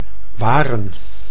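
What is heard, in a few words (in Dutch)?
"waren", Dutch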